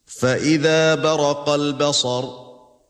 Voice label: al qiyamah ayat 7 qalun